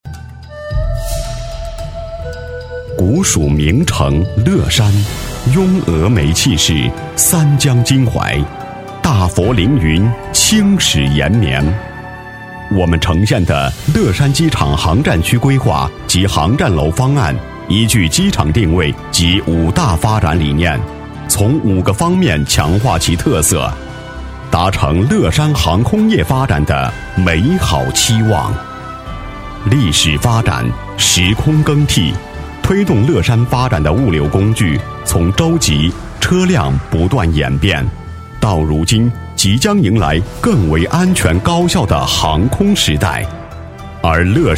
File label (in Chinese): 多媒体 项目规划 规划设计, 男国; 乐山规划设计